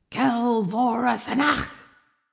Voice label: incantation feminine reverb harm